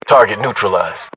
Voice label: uk inform kill firm infantry, battle chatter, English